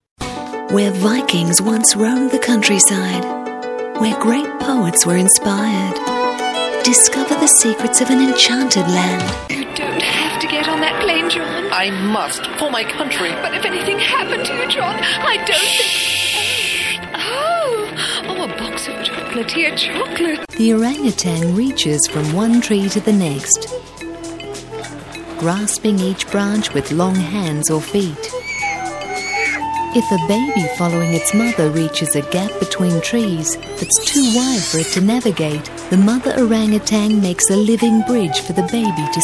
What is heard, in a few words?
international english voice over usa australia english